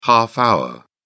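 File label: "half-hour", British English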